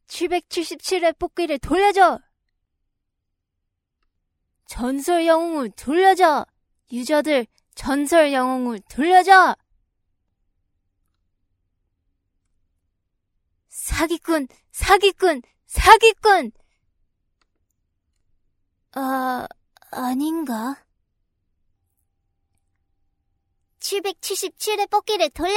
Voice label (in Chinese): 韩语女声